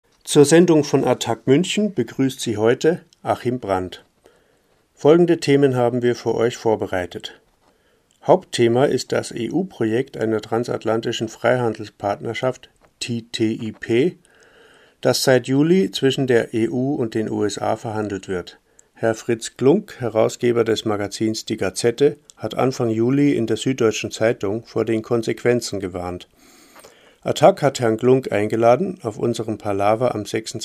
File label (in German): Ansage; Zur Sendung von Attac Muenchen; LoRa